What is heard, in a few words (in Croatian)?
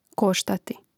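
"koštati" Croatian; Hrvatski mrežni rječnik; koštati gl. nesvrš.; Mrežnik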